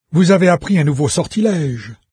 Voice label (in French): new spell, VOICES fr NARRATOR; Fate